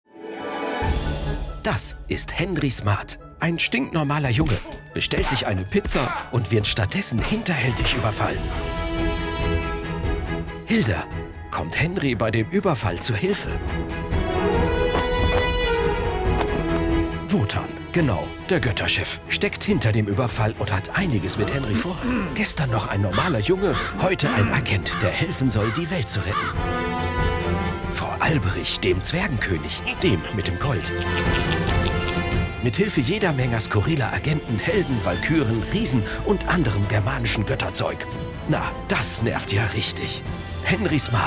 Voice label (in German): Anim Video Kids Buch Trailer